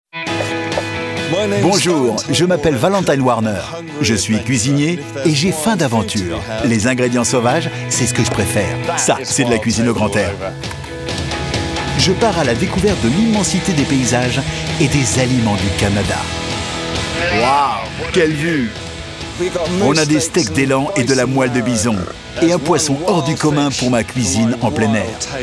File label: Voice-over